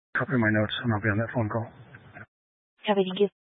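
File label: Sheriff Dispatch, scanner audio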